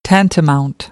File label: "tantamount", American, English